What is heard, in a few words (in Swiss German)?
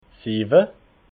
"siwwe", alsace